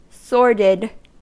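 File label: "sordid", US English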